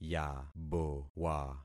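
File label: "yabowa", concatenated syllables; Affixing experiment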